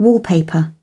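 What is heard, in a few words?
"wallpaper", British English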